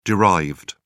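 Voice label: "derived", English, British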